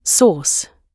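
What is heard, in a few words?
"source", British English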